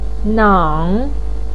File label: "nang3", Chinese pinyin voice